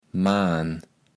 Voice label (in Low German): "Maan", kaevels platt